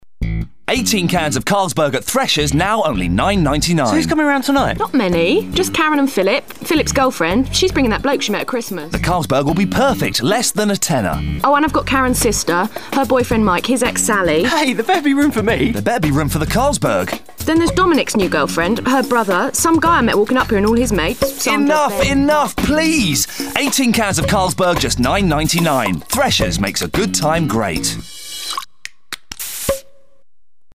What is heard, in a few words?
VOICE OVER RADIO COMMERCIAL; Running time: 30 seconds; THRESHERS